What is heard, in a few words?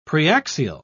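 "preaxial", British English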